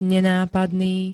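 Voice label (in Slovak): "nenápadný", Slovak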